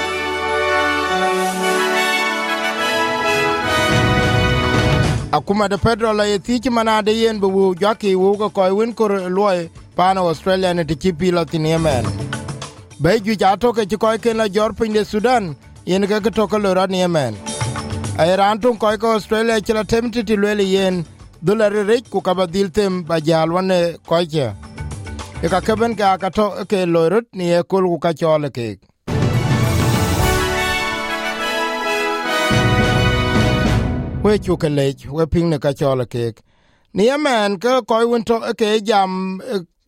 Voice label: dinka, podcast; SBS Dinka Full Program 26/04/2023

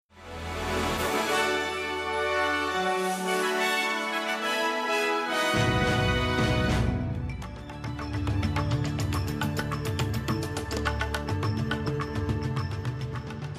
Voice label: gujarati newsflash; SBS Gujarati Australian update: 7 July 2025

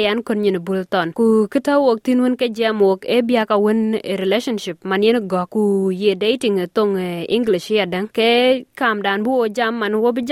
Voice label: dinka; Ciëŋda gɔ̈kic ke wo ye nyiir; SBS Dinka

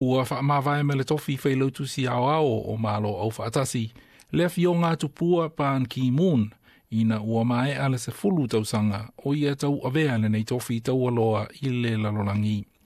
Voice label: samoan; Tuua a Tupua Ban Ki Moon malo aufaatasi; SBS Samoan

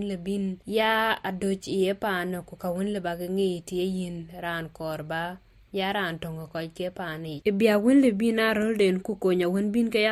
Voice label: dinka, podcast; How to become a First Nations Advocate; SBS Dinka

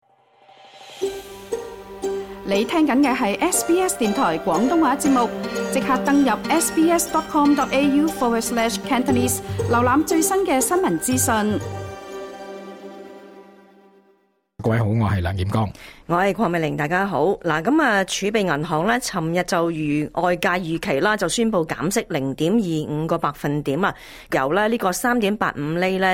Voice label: cantonese; 【真減息】儲銀文件指澳洲經濟前景現隱憂？; 儲備銀行昨天如外界預期宣布減息0.25個百分點， 從3.85厘降至3.6厘; SBS Chinese

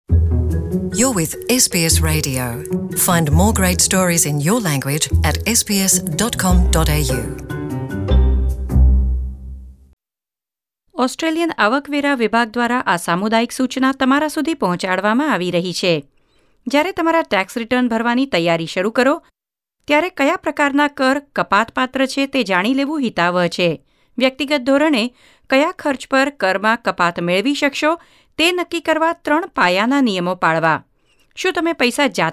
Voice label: gujarati; Tax talk August 2018-Claiming work related expenses; We hear from the Australian Taxation Office about claiming work-related expenses; SBS Gujarati

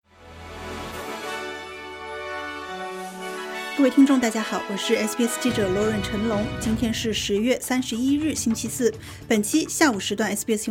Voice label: mandarin news flash; 公共卫生系统被批忽视精神健康; SBS Chinese; SBS新闻快报

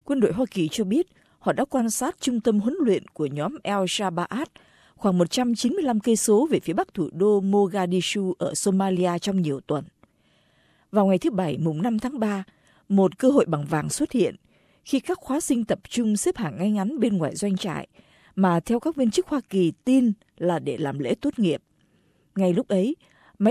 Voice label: vietnamese; Không kích Hoa Kỳ tiêu diệt 150 phiến quân Somalia; SBS Vietnamese

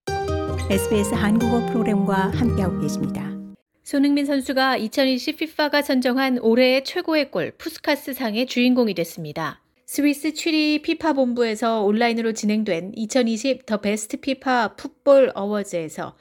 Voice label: korean; SBS Korean; 손흥민 ‘70m 원더골’, 2020 FIFA 푸스카스 상 선정

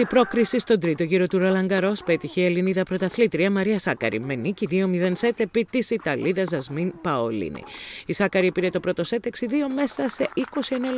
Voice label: greek; Sakkari and Tsitsipas into the 3rd round of Roland Garros; SBS Greek

